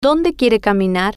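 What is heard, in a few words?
"Donde Quiere Caminar", Spanish, female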